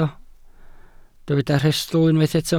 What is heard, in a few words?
"rhestl", Welsh